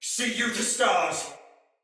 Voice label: See Ally Die, Soldier Male; Sinecure Soldier Male A